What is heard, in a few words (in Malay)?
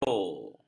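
"dodo", Malay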